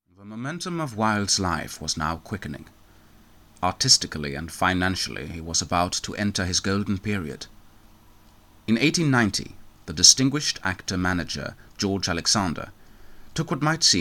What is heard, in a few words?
Voice br eng